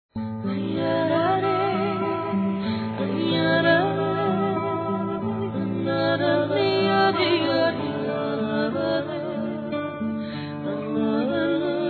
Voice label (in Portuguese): encontrei em você um amigo m, Telemensagens; Amizade